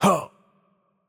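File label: French, hurt light; VO; bloom minigame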